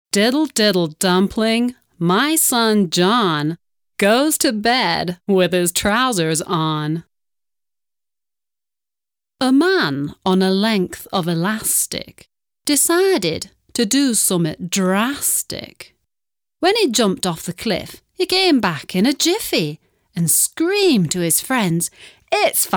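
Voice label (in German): British English American English Akzente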